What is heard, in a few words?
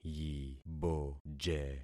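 "yiboje", concatenated syllables; Affixing experiment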